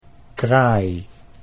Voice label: "kraai", Dutch